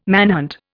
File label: "manhunt", Indian